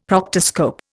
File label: "proctoscope", Indian; Dictionary